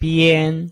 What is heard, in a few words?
"bian1", Chinese